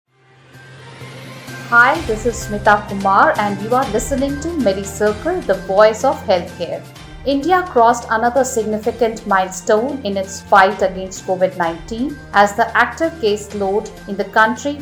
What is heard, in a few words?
english news